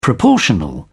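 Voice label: "proportional", British English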